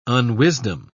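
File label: "unwisdom", English, British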